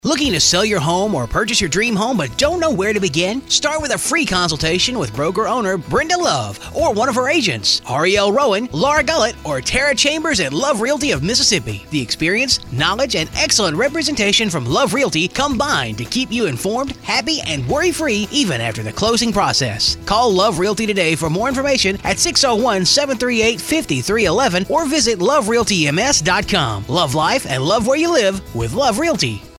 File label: Commercial Audio